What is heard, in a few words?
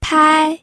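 "pai", Mandarin Chinese, first tone; pinyin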